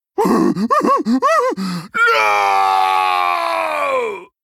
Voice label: Male Gnoll; Hogger; Scabbs Mission3 Death

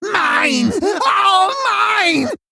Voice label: Male Gnome Play

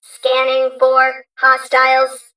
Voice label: Female Mech

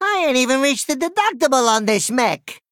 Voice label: Male Goblin; Whirleygig

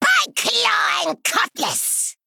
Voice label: Female Gnome Play

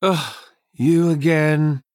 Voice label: Male Human Prologue Open

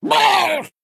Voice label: Male Murloc Attack

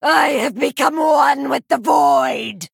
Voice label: Female Voidwalker Play

